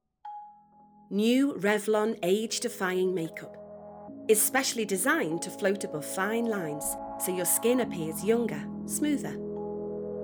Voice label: commercial, voiceover; Revelon

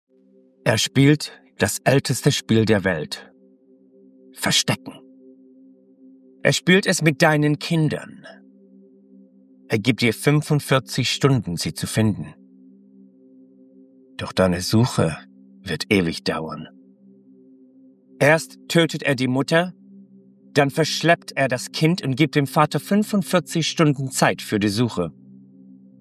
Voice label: GERMAN narration

